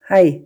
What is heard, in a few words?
"haj", Sorbian; Sorbian page 34